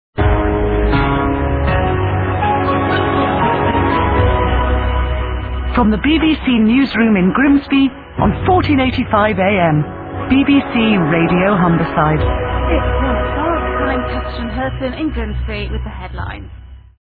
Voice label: bbc radio humberside; southbank